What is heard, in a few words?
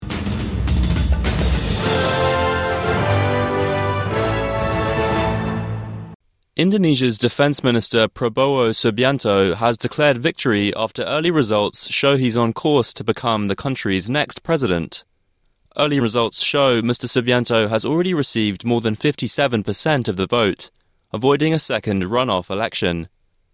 english; Indonesia's defence minister declares victory in presidential election; SBS News